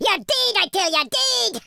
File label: fatality, Scotsman; speech